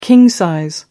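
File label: "king-sized", British English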